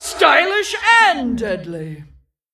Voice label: Female Attack; Tolvir